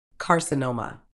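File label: "carcinoma", British English; kɑːsɪˈnəʊ.mə; kah-si-NOH-muh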